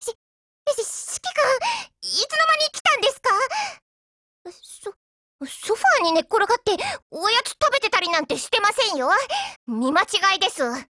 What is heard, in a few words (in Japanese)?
HELLO JP; Saiga308